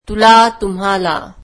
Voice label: marathi you

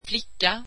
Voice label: swedish girl

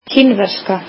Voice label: "Chinese", Icelandic

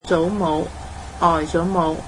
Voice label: "grandmother", Cantonese

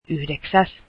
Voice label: ninth, finnish

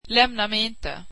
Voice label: swedish dont leave me

